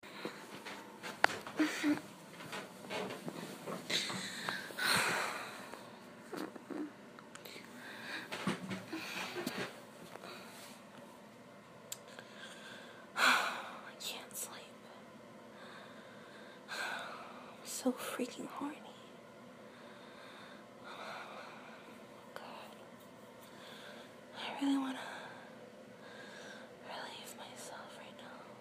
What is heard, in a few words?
F4M Whispers; I can't wake her...; [Improv]; [Stay Quiet!]; Whimpers